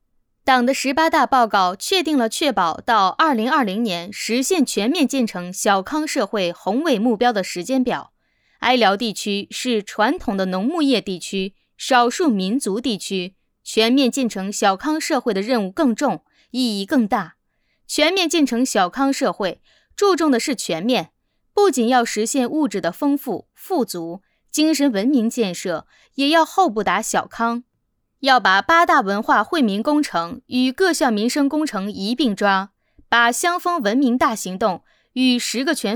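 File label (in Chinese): 党政专题女声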